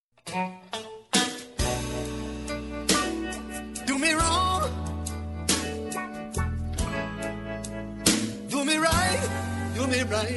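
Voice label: romantic ex, female; Telemensagem Romântica para Ex – Voz Feminina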